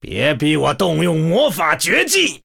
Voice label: Male Human Threaten, zh